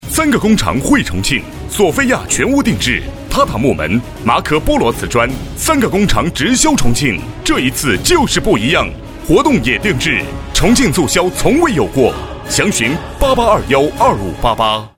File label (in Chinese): 广告 男 混录 大气激情; 索菲亚